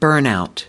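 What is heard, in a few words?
"burnout", US English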